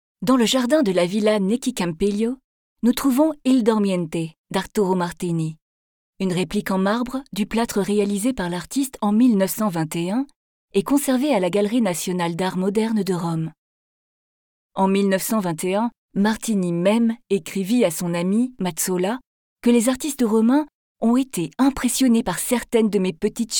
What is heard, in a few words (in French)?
audio guide, voix-off femme; Villa Necchi Campiglio; Giardino; FR